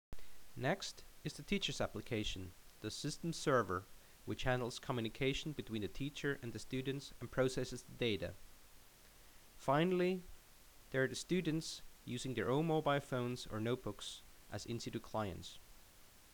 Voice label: Narration